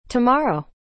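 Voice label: "tomorrow", English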